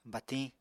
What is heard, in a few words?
"batiñ", apatani